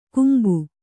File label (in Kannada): "ಕುಂಬು", Kannada